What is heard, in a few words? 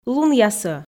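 "лунъясӧ", Komi, female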